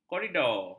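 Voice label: "koridor", Malay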